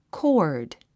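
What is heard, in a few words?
"chord", English; kɔ́ː r d コード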